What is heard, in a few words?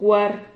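"cuar", Spanish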